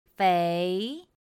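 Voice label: "féi", Chinese; pinyin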